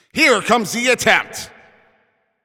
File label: Shot On Goal, Announcer